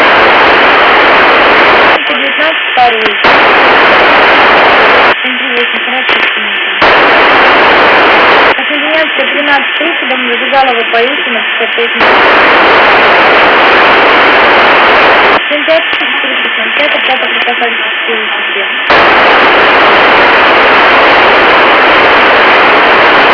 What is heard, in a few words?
rus taxi; iarums